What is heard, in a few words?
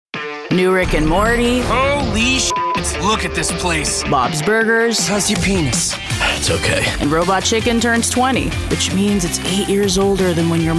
english american promos